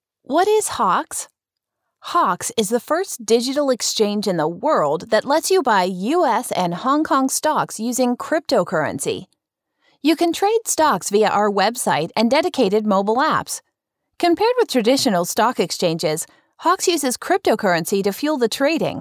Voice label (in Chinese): 加密货币管理软件配音